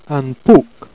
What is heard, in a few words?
"pouque", Jèrriais